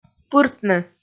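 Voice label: "пыртны", Komi, female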